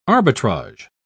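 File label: "arbitrager", British English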